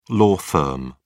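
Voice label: "law firm", British English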